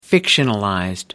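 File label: "fictionalized", English, British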